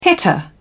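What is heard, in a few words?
"hitter", British English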